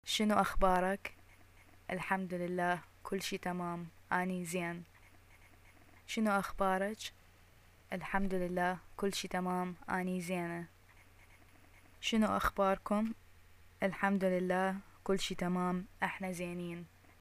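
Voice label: greeting how are you, arabic, Iraqi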